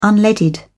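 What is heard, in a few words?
"unleaded", English, British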